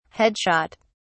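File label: "headshot", British English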